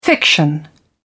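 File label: "fiction", English, British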